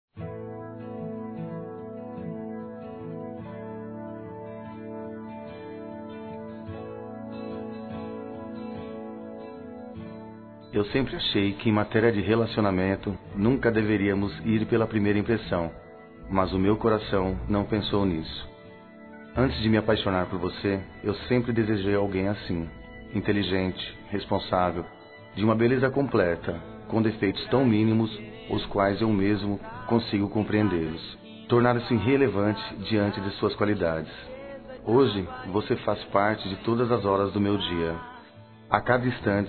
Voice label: Masculino, Dia Dos namorados; Eu quis a Perfeição e Encontrei Você; Telemensagens 2017

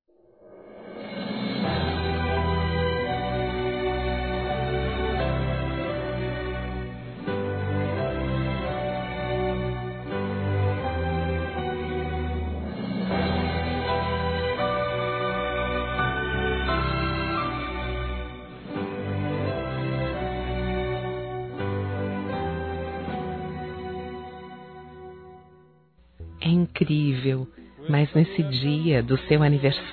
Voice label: ROMANTICA F

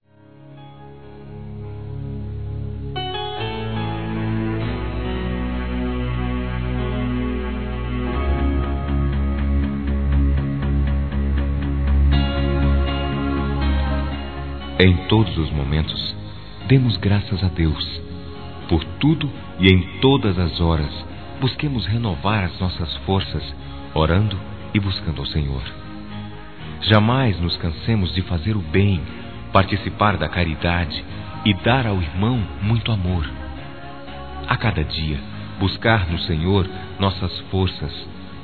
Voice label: ANIVERSÁRIO M, Telemensagens Gospel masc

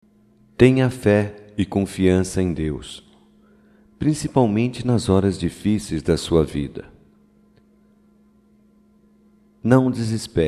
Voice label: OTIMISMO, Só Voz, masc; Telemensagens; Telemensagens 2017

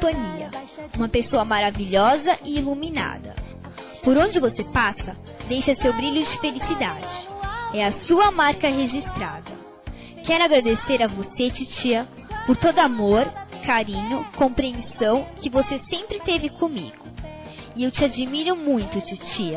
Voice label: ANIVERSÁRIO TIA ADOLESCENTE F, Telemensagens, Fem; Aniversários; Aniv Familiar

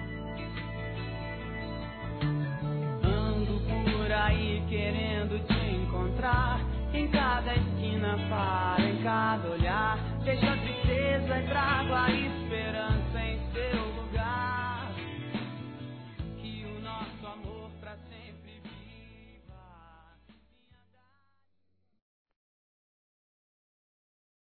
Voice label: Eu Quis a Perfeição e Encontrei Você FEMININO; Dia Dos namorados; Telemensagens 2017

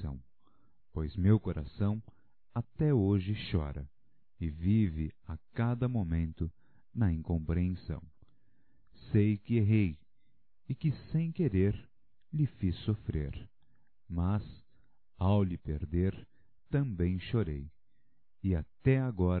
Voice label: Te Magoei, Desculpe-me Masculino, Só Voz; Telemensagens; Telemensagens 2017

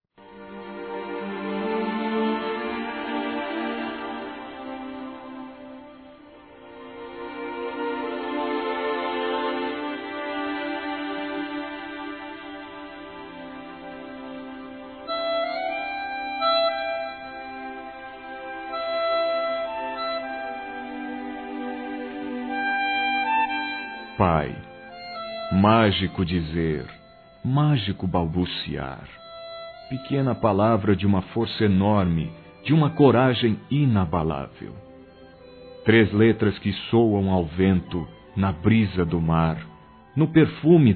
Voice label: PARABÉNS PAPAI, Telemensagens, Maternidade, masc

